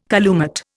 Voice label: "calumet", Indian